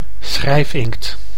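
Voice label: "schrijfinkt", Dutch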